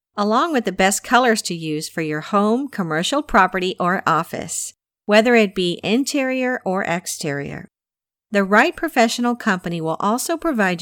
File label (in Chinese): 外籍女英 外语 美式英语